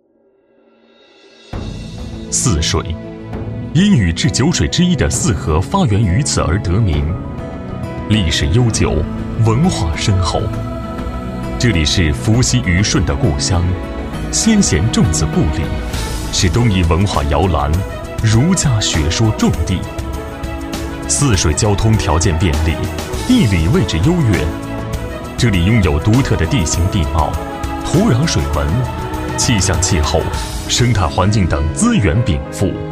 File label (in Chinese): 男 大音 农产 地瓜宣传片; 泗水地瓜